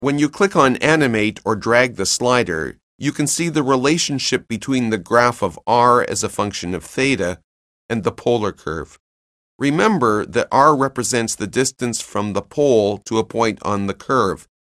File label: narration; CALCULUS 7E; cengage